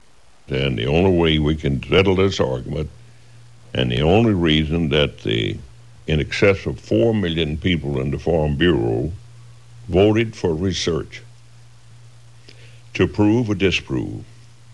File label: Kentucky, dialect